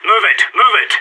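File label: radio lets go, voice; agents; sas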